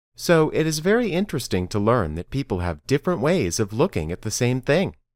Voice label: Dictation